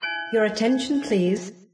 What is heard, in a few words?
game announce; There; ThereClient; gamekit; gunkit